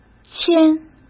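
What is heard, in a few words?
"牵", Mandarin Chinese; chinese flashcards; hsk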